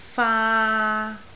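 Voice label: "faa3", Cantonese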